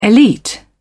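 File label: "elite", British English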